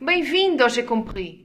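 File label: welcome, Brazilian Portuguese; gcompris-data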